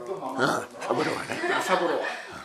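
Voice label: saburo, Minamiyamagata dialect Japanese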